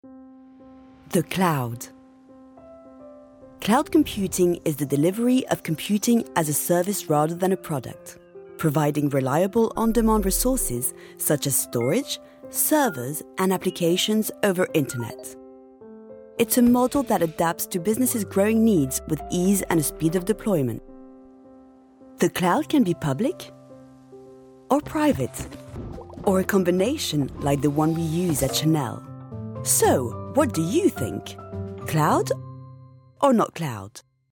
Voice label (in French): Voix anglaise; Channel